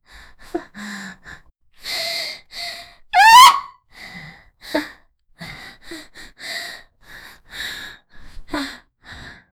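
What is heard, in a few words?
realistic voice of a 15 ye, teenage girl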